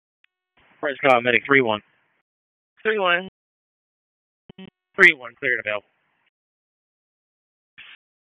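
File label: EMS Dispatch; wake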